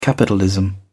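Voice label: "capitalism", English, British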